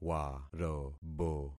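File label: "warobo", concatenated syllables; Affixing experiment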